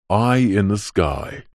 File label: radio prod un processed male voice says eye in the sky